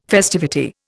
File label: "festivity", Indian